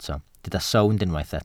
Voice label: "sownd", Welsh